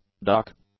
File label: "duck", English; nouns